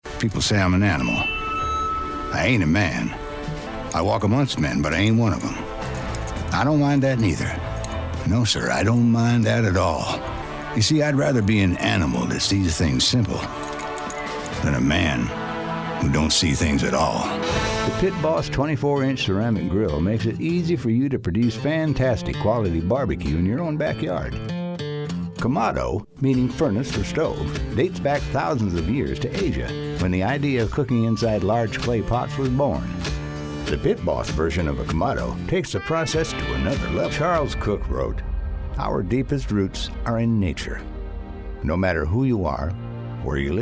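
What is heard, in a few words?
Narration Male, Storyteller, Trustworthy, Mature; Narration, Corporate, Documentary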